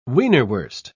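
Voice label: "wienerwurst", British English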